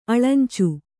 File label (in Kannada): "ಅಳಂಚು", kannada